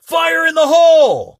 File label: fire in the hole, male; Kenney's Sound Pack; Voiceover